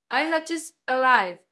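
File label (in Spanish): Voice Acting es F, Spanish, female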